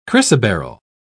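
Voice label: "chrysoberyl", British English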